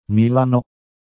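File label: "Milano", Italian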